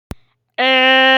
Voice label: funny voice

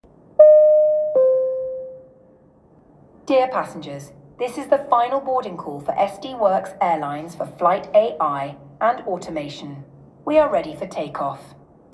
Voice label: final boarding loud

